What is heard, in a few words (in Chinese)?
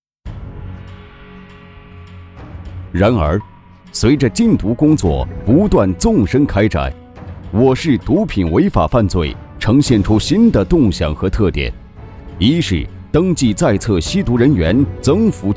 男, 专题, 紧张严肃; 禁毒